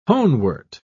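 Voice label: "honewort", British English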